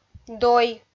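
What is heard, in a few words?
"дой", Komi, female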